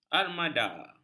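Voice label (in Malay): "armada", Malay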